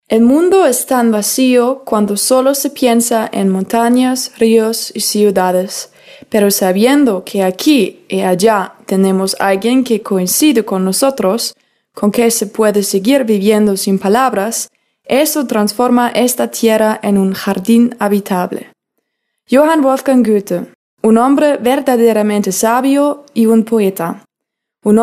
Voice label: spanisch